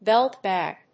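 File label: "belt-bag", English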